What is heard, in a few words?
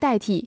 "代替", china